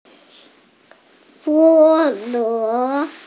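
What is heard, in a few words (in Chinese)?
"bo1luo2", Mandarin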